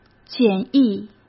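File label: "简易", Mandarin Chinese; hsk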